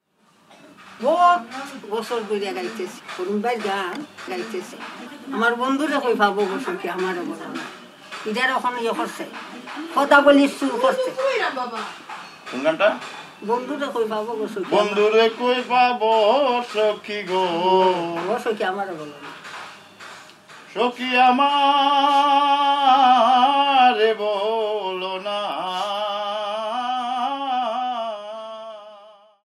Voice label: Conversation